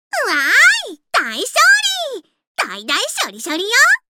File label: Voice victory